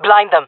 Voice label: request flash, jungle fem; vo; Counter Strike Model Pack; CS:GO